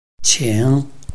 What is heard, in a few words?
"qing3", Chinese